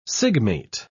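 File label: "sigmate", British English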